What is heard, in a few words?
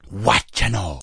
watch ya now, Rastafarian, spoken word